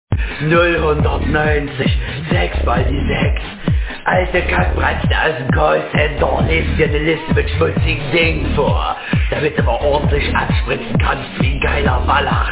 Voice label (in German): witzige bandansage